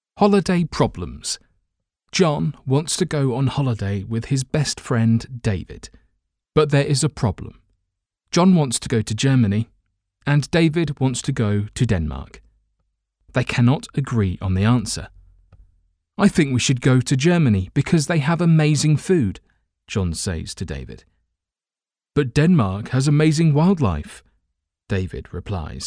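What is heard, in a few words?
English male; lektoring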